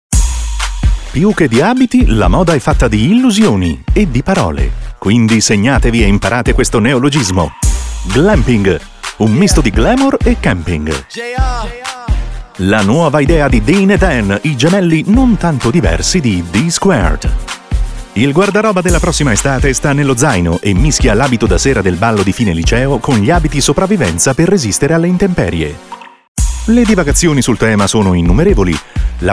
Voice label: corporate